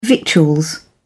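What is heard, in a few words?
"victuals", British English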